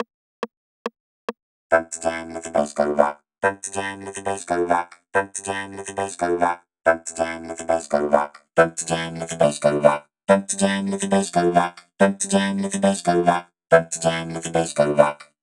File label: Pump the Jam (var - 140BPM), Shouts; Vengeance Samples